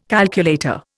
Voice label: "calculator", Indian